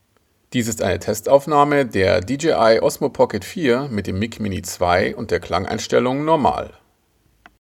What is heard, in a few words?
Normal, dji mic mini 2; kompakten funkmikrofons dji mic mini 2